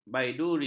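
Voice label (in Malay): "baiduri", Malay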